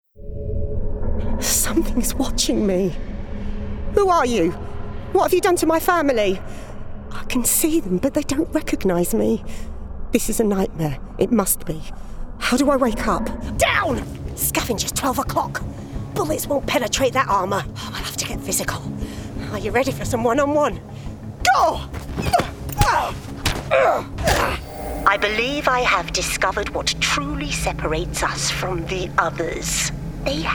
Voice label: Gaming Voice Reel